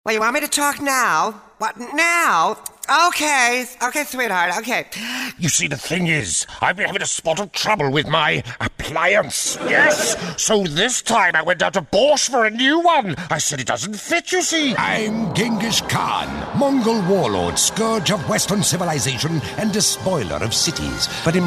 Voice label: comedy

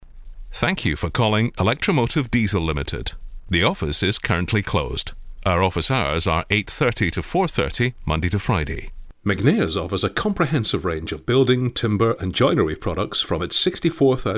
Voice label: telephone

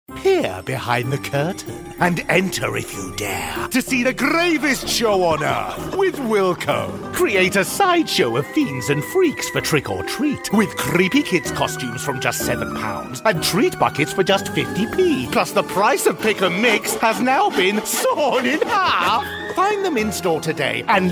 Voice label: spooky villain

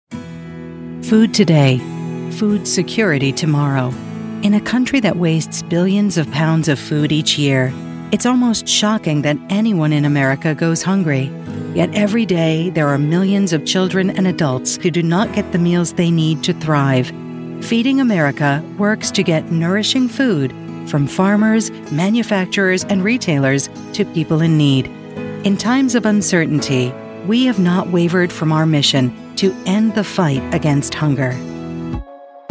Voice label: american voice corporate

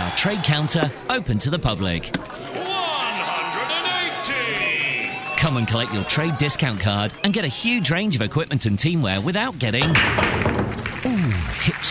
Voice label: sports voices

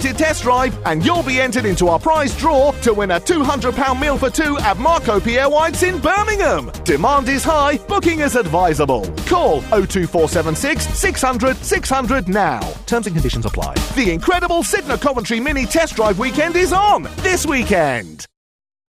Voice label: cheesy game show host

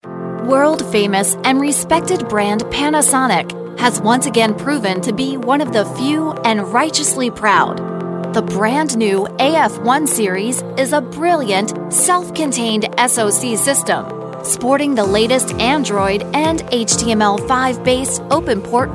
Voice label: american corporate

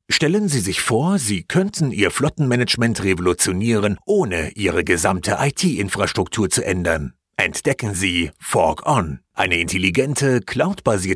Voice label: german voice explainer

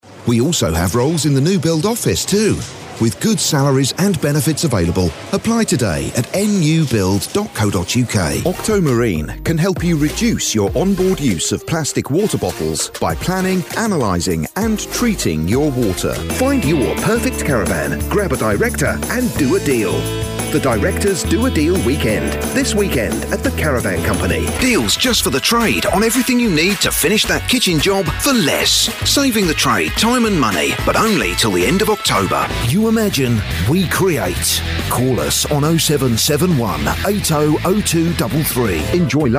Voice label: commercial

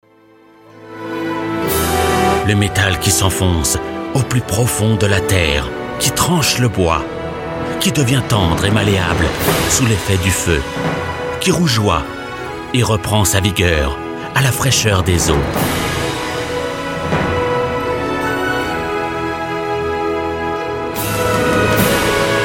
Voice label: french male voiceover, documentary

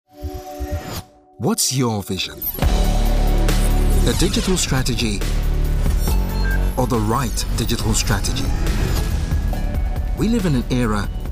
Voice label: british african corporate

